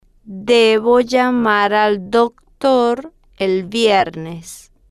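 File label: debo llamar al doctor el viernes, Spanish, female, slow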